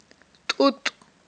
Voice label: "тIутI", Aghul

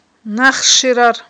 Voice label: "нахширар", Aghul

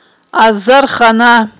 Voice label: "азархана", Aghul

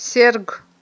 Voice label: "серг", Aghul

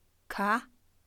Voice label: snow, Kham

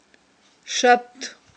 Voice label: "шатт", Aghul

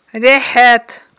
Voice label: "рехIет", Aghul

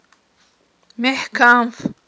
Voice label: "мехIкамф", Aghul

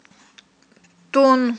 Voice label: "ттун", Aghul

